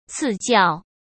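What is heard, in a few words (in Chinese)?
"赐教", Mandarin Chinese; chinese flashcards; hsk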